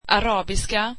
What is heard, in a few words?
Arabic, swedish